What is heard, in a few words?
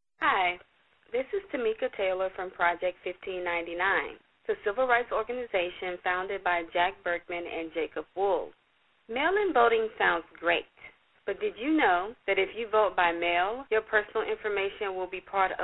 robocall